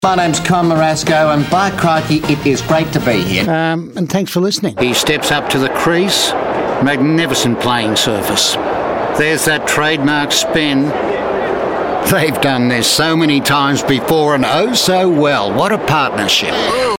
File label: cricket commentator